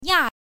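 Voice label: "nia", pinyin, fourth tone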